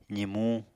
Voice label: "zimuñ", Apatani

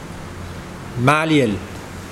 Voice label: Mokilese brain

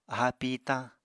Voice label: "aháa pìitañ", Apatani

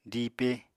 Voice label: "diipe", Apatani

